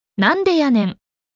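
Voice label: anime, Japanese, Hilarious Anime Quotes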